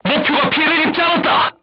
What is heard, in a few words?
voice message armor not pierce, korean, crew tanks